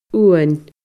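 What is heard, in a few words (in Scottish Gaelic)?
"uan", Scottish Gaelic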